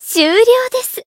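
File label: Voice finish, Japanese; pom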